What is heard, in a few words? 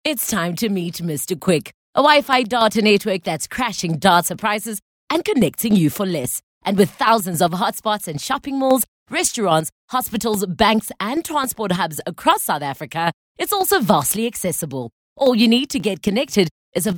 English hard sell